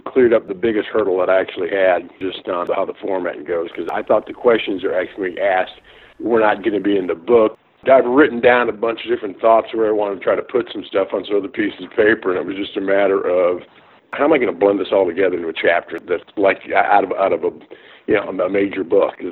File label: Clarity Call Testimonial